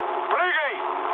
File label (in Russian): bailout, mood low, speech ru; War Thunder